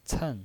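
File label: "cen", Mandarin Chinese, fourth tone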